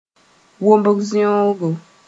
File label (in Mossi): "womb zeongo", moore